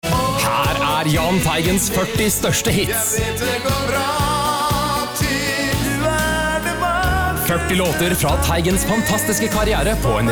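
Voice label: norwegian male commercial; voice demos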